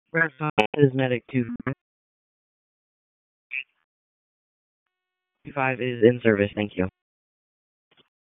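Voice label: EMS DISP, radio; wake; p25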